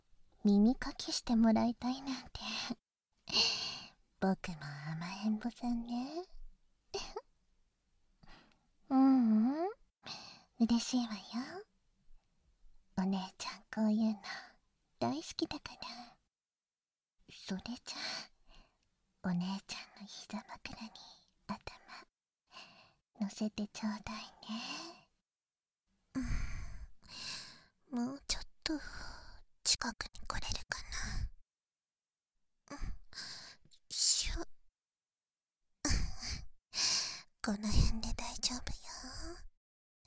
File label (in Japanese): 耳かき 囁き重視 効果音あり, ASMR